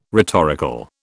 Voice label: "rhetorical", British

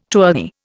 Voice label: "tourney", Indian

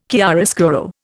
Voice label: "chiaroscuro", Indian

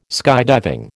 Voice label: "skydiving", American

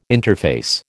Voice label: "interface", American

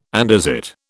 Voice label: "andesite", British

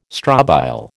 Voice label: "strobile", American

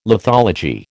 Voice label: "lithology", American

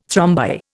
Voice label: "thrombi", Indian

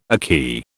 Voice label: "akee", British

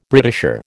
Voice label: "Britisher", American

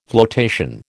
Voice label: "flotation", American

